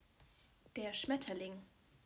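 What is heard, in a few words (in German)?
"Schmetterling", German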